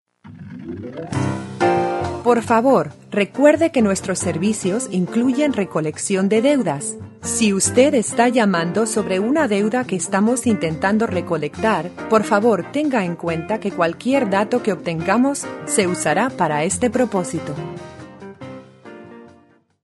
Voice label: spanish; TELEPHONE MESSAGE SAMPLES